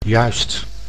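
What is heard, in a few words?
"juist", Dutch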